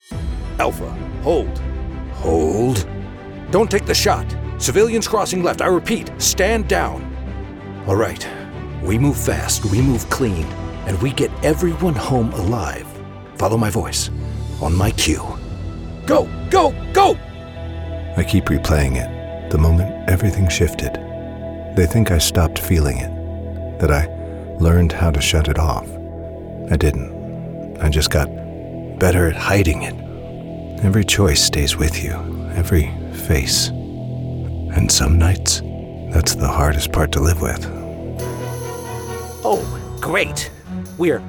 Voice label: Animation Character; Christopher Massey